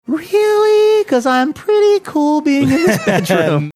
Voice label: speaking in falsetto voice